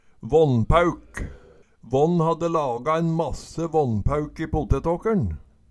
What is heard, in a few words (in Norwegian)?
"vannpaeuk", Numedalsmål